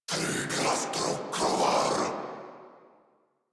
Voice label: Attack Corrupted Grineer Male